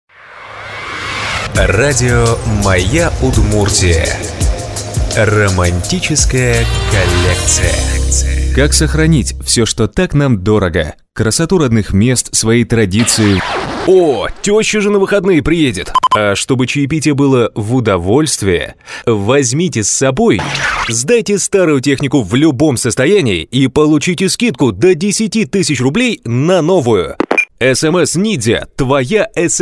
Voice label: russian male